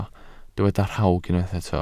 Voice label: "rhawg", Welsh